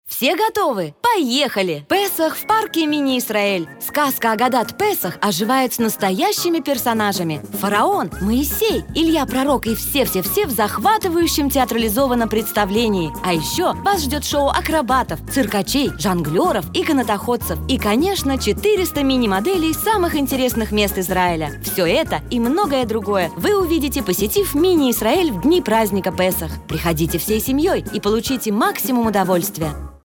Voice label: russian promos